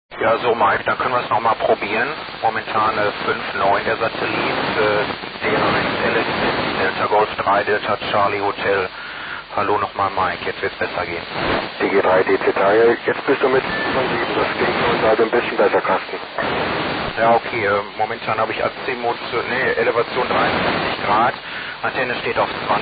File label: qso; so-35